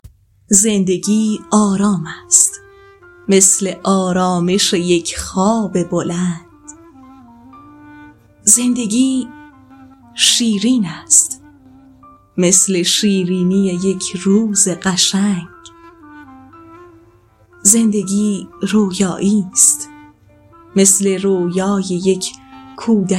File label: narrator